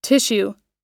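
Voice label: "tissue", English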